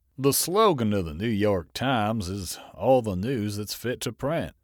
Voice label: Texan

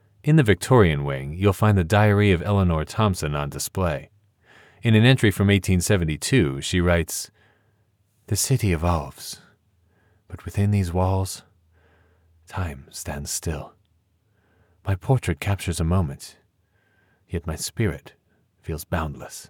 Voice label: Museum Audio Guide Character Diary

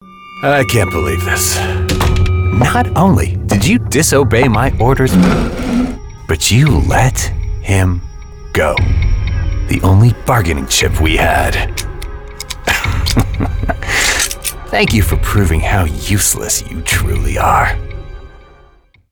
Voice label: Video Game Mob Boss

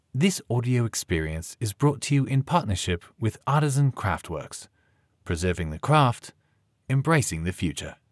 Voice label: Audio Guide British Sponsorship Notice

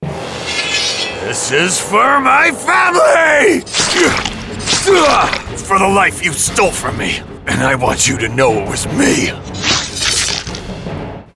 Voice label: Video Game Fantasy Warrior

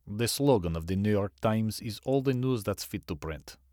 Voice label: Eastern European

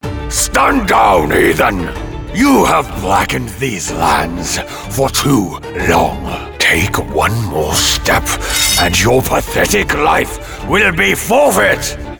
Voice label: Video Game Orc Chieftain

